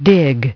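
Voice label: "dig", English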